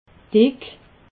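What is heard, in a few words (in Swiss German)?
"dick", Alsatian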